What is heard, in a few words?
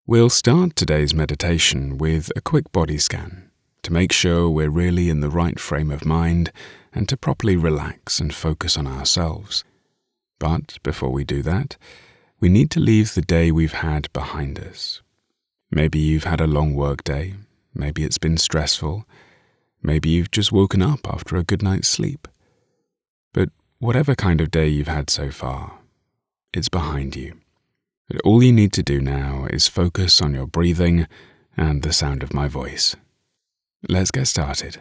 english british audio guides; audio guides voice actors